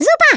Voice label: BRILLIANT, Speech, German; Worms Armageddon

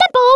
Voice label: HURRY, Speech, Dutch; Worms Armageddon

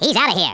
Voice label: "fatality", US Sports, speech

